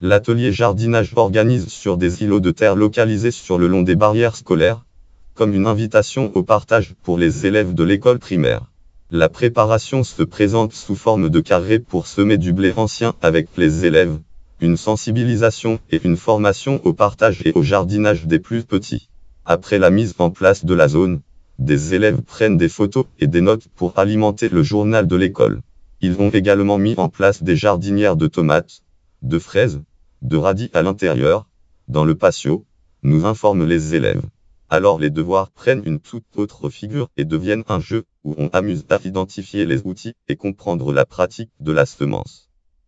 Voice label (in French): voix du mec; ecole primaire saint exupery